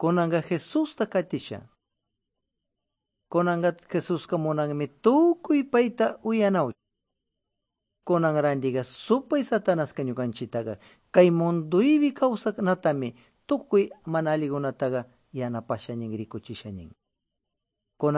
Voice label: good news quichua de pastaza